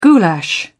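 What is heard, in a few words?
"goulash", English, British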